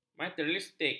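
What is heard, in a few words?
"materialistik", Malay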